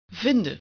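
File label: "vinden", Dutch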